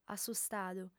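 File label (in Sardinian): "asustadu", female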